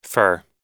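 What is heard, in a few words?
"for", American English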